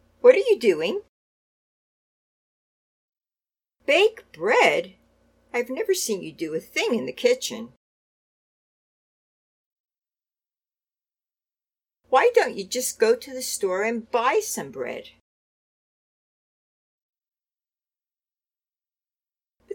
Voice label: Natural Dialog